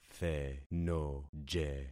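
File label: "thenoje", concatenated syllables; Affixing experiment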